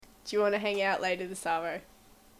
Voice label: Australian English, Do you wanna hang out later this avo?